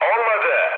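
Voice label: Turkish bomb failure, mood med, speech; War Thunder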